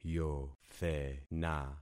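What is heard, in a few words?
"yothena", concatenated syllables; Affixing experiment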